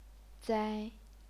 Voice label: "zai1", chinese pinyin